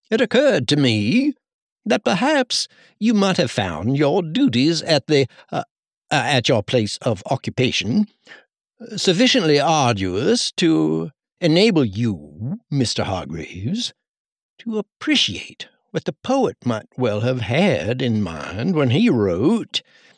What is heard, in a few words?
refined southern